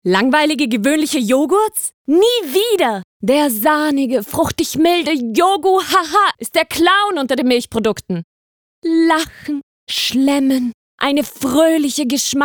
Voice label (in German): Werbung